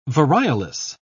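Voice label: "variolous", British English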